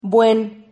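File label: "buen", Spanish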